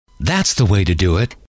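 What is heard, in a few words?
"that's the way to do it", male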